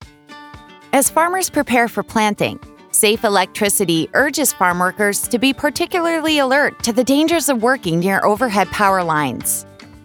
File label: Spot w music female voice